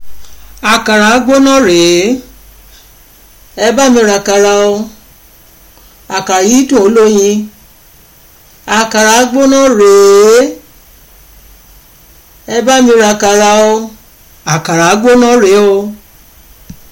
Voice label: Akara advert